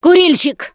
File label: warn smoker, russian, Survivor Voice Producer